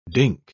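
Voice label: "dink", English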